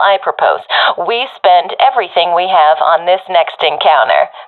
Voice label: request spend, professional fem; vo; Counter Strike Model Pack; CS:GO